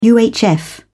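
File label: "uhf", British English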